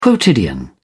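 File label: "quotidian", English, uk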